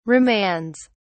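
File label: "remands", British English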